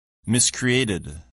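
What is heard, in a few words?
"miscreated", English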